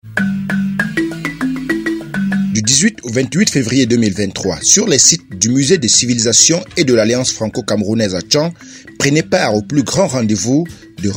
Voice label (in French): Annonce Radio; Expo Reconnect